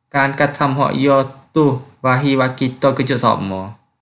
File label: Kelantan-Pattani Malay